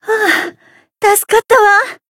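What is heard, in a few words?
Voice healed, Japanese; ashuthia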